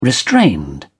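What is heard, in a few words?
"restrained", English, British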